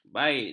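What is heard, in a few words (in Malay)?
"baid", Malay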